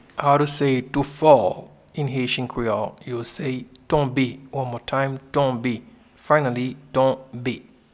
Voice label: to Fall in Haitian Creole Tonbe

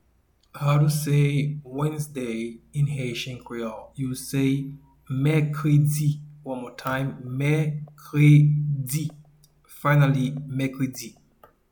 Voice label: Wednesday in Haitian Creole Mekredi pronunciation

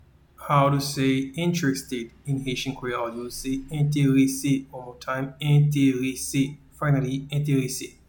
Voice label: Interested in Haitian Creole, Enterese

